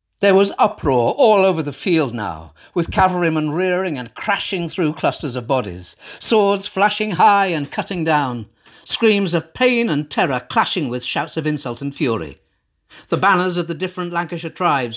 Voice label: Narration